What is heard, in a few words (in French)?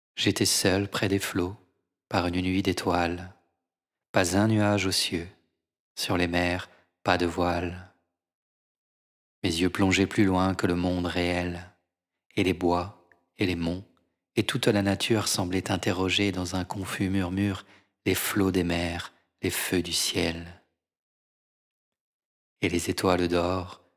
extase après trait rapide, voix, livre audio